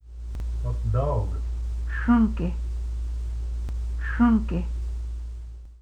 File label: dog, quapaw words